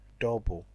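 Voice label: "double", Nigerian Pidgin, male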